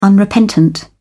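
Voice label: "unrepentant", British English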